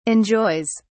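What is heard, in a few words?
"enjoys", British English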